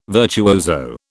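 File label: "virtuoso", British English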